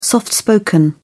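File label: "soft spoken", British English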